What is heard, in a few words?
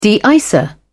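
"de icer", en, British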